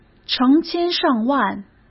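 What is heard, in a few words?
"成千上万", Mandarin Chinese; hsk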